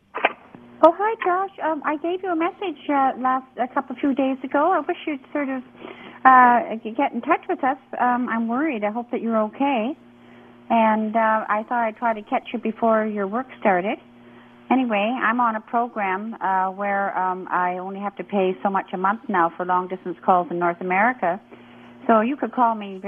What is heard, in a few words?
Voicemail